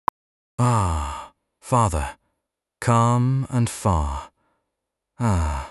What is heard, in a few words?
vowel a male